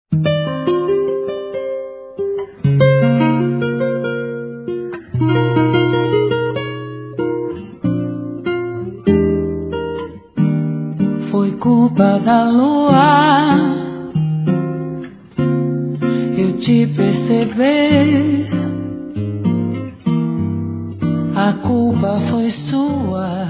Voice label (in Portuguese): Te Amo Te Amo Masculino